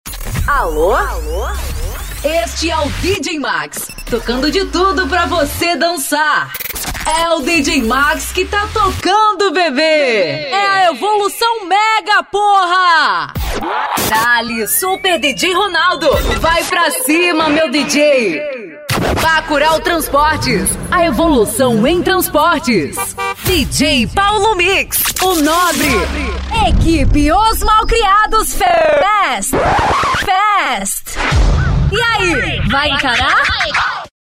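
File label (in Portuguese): ♀, vozes para vinhetas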